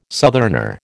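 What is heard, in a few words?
"southerner", American English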